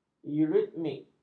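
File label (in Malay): "euritmik", Malay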